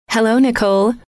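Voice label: "hello", female; trainer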